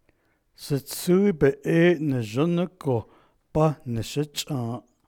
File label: navajo I designed my grandchild's colorful clothes; verb mode